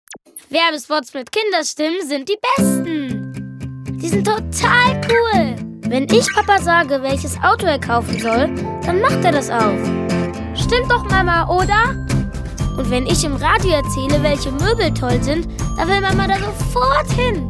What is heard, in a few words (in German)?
Werbung Kinderstimmen